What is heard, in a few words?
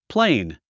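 "plane", English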